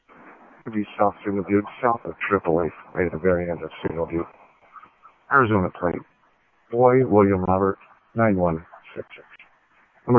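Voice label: Mesa Simulcast Police Department; MESA PD Superstn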